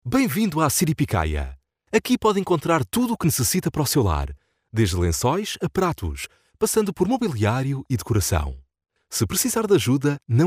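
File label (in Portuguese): comercial Portugal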